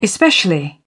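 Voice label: "especially", British English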